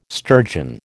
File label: "sturgeon", American